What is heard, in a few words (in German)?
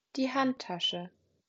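"Handtasche", German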